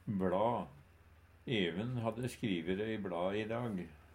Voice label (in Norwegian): "bLa", Numedalsmål; Substantiv hankjønn